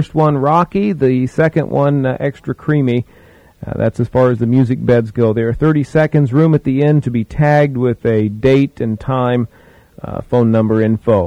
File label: Radio ads